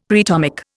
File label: "preatomic", Indian; Dictionary